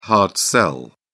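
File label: "hard sell", British English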